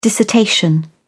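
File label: "dissertation", British English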